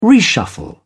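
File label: "reshuffle", British English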